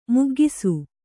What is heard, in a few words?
"ಮಗ್ಗಿಸು", Kannada